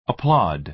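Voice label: "applaud", American English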